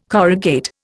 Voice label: "corrugate", Indian